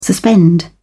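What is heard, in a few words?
"suspend", British English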